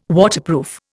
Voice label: "Waterproof", Indian